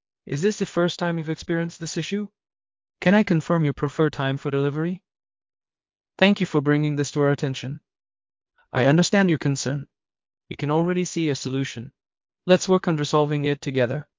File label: After, Accent Conversion; Krisp; V3